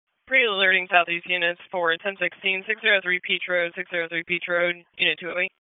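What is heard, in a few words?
Raleigh Police Downtown District Dispatch; p25; wake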